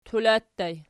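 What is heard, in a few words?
"tula" ergative plural, Archi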